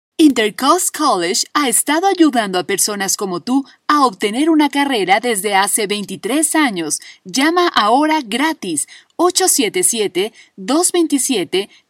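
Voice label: Spanish female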